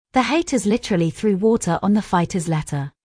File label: british T; british vs american